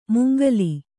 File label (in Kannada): "ಮಂಗಲ", Kannada